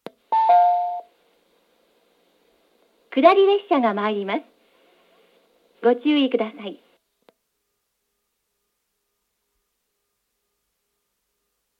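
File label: platform 3 approaching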